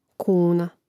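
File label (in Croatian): "kuna", Croatian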